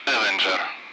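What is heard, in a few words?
Speech, Russian; Allied Flight; AirDefence; Avenger; DCS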